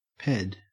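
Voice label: "ped" English, Australian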